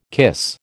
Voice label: "cuisse", American